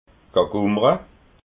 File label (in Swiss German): "gagummra", alsace